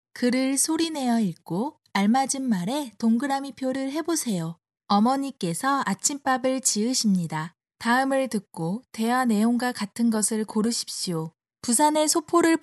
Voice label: Korean

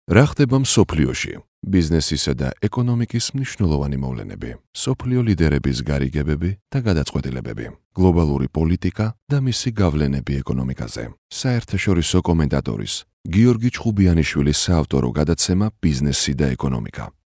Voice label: Georgian male

